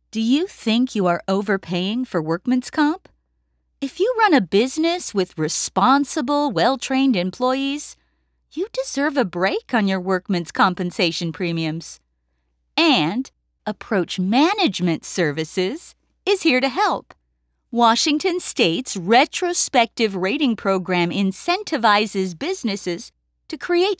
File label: Explainer Video Sample